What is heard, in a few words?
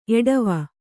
"ಎಡವು", kannada